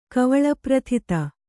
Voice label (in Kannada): "ಕವಳಪ್ರಥಿತ", Kannada; kavaḷaprathita